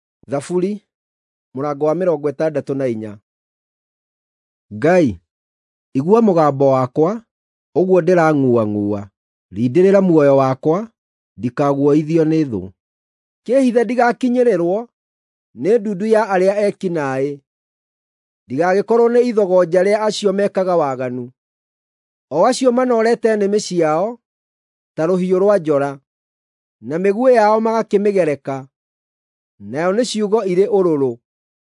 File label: psalms, gikuyu drama